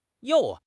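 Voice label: "you4", Chinese; HSK3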